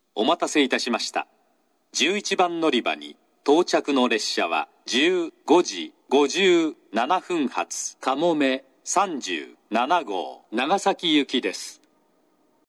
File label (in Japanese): 11番のりば接近放送; 西九州新幹線; かもめ37号 長崎行き; takeo onsen